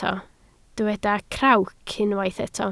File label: "crawc", Welsh